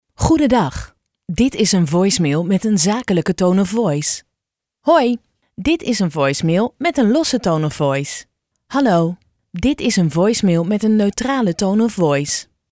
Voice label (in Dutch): voicemail; podcast